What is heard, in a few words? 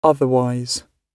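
"otherwise", British English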